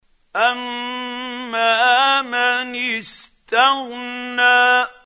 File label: quran, Hussary, tafseer; Abasa